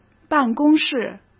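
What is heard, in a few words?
"办公室", Mandarin Chinese; chinese flashcards; hsk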